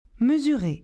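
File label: "mesurer", French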